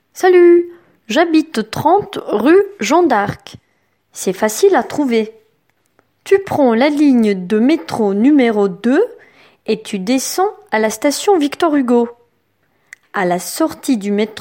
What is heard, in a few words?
message vocal; BIENVENUE CHEZ MOI